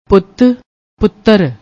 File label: "putt", Punjabi